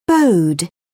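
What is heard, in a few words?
"bowed", British English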